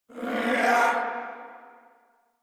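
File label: Throw Grenade Corpus Venus Male, en; Warframe; Battle Barks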